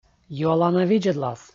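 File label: "юалана видзӧдлас", Komi, male; kpv audio dict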